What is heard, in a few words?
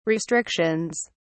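"restrictions", English, British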